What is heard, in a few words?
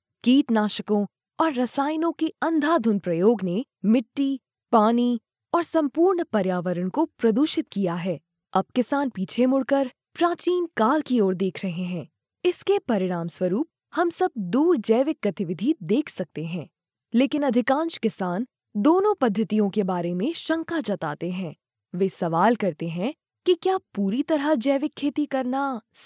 female hindi voice over narrative